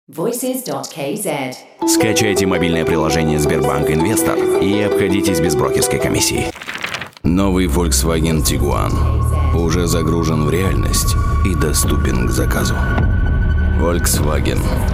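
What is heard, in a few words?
Russian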